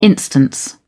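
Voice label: "instance", British English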